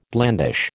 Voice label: "blandish", American